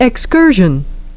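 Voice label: "excursion", English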